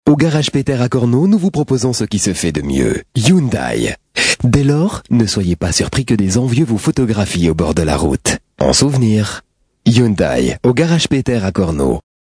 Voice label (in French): pub voix off